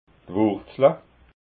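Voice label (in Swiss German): "wurzla", Alsatian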